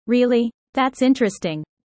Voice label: user fast